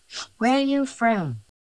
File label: Voice Acting es